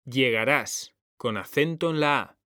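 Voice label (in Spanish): "llegarás", Spanish, male; mekanta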